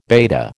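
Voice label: "beta", American English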